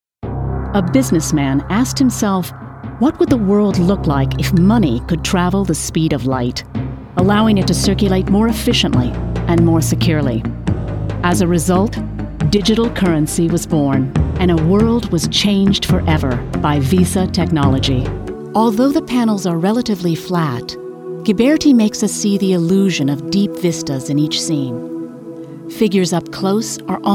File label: Narration